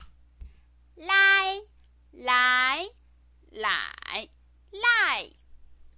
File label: "lai", Chinese pinyin